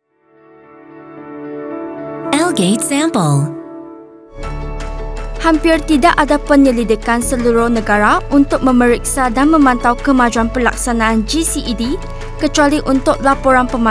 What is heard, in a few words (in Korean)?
말레이 여 밝은 톤